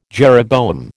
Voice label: "jeroboam", American